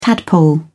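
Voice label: "tadpole", British English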